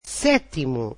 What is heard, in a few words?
"seventh", portuguese